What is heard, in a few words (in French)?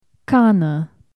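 "tasse", Romanian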